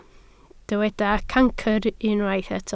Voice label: "cancr", Welsh